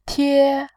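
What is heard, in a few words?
"tie", Mandarin Chinese, first tone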